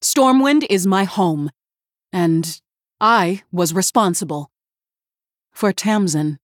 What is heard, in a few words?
Female Human